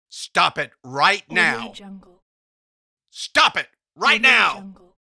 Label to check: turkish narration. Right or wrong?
Wrong